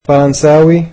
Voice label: "French", egyptian

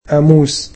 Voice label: "dictionary", egyptian

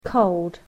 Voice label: "cold", English

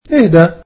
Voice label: "What is this", egyptian

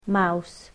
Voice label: "mouse", English

